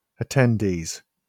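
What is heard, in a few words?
"attendees" English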